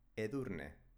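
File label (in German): "edurne", baskische Mädchennamen, Aussprache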